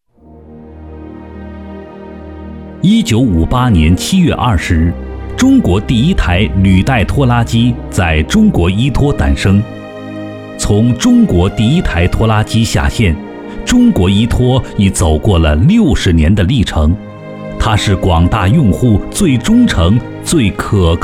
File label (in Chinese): 男 企业宣传 纪录片配音